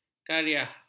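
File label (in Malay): "kariah", Malay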